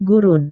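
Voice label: "gurun", Indonesian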